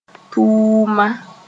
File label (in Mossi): "tʋʋma", moore; works